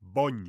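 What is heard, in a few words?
"bogn", Romansh Sursilvan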